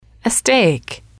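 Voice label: "a steak", female, English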